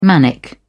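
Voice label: "manic", British English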